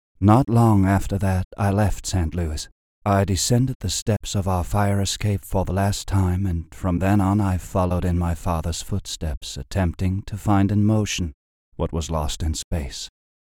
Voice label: Monologue English; The Glass Menagerie